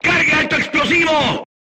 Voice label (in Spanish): voice message load HE, Spanish